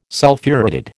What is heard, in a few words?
"Sulphuretted", American